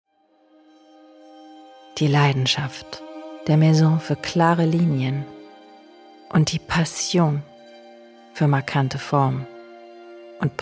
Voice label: Werbung mood; Cartier

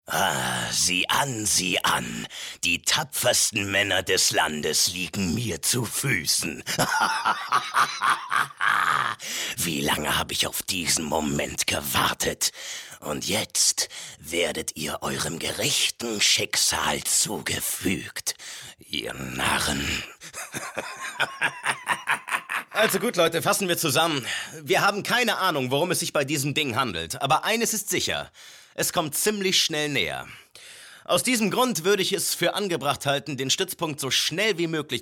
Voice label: hoerspiel

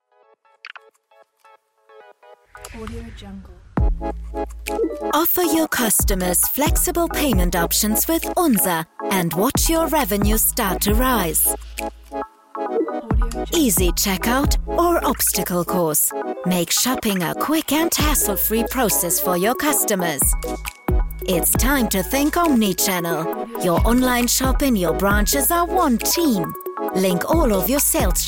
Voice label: Werbung Englisch, Commercial (Werbung), Overlay; UNZER Imagefilm/Werbung Englisch

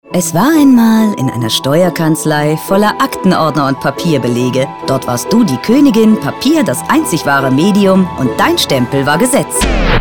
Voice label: Funkspot Steuerkanzlei

